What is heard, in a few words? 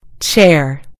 "chair", en GB; gcompris